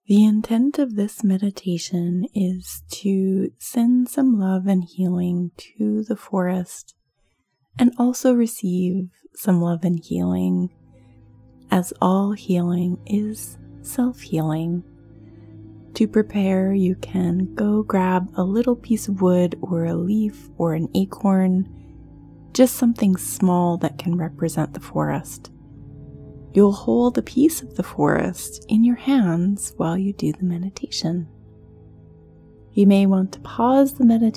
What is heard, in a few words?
Forest Healing Meditation